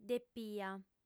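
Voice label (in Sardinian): "depia", female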